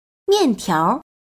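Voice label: "mian4tiao2", Mandarin Chinese; 面条; 麺類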